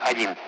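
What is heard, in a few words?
Speech Sound Russian Common Allied Flight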